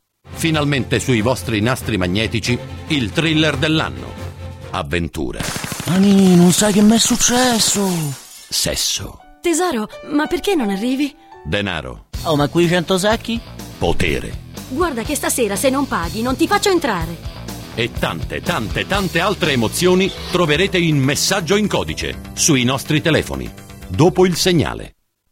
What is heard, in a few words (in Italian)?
Segreterie Telefoniche - Segreteria Trailer